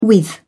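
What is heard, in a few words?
"with", English, British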